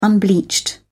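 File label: "unbleached", British English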